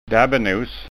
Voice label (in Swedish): "dabbenos", skanska